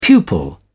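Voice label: "pupil", British English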